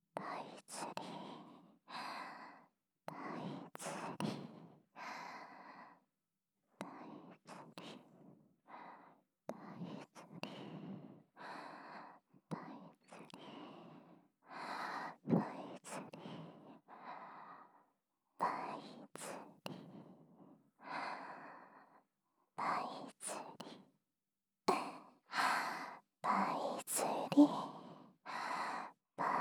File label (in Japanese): 囁き淫語連呼; SEあり